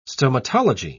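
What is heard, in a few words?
"stomatology", English, British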